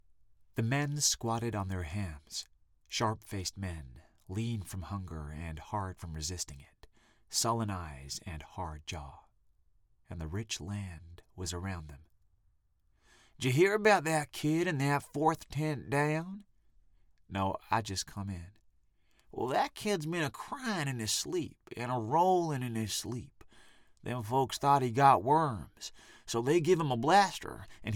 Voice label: US Reel